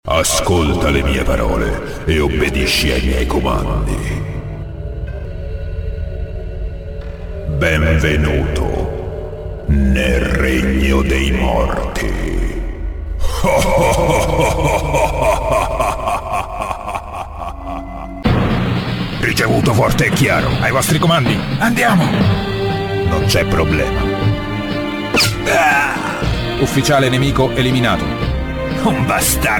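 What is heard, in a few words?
Italian, Characters; voice demos; GoLocalise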